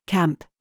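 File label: TRAP, words, British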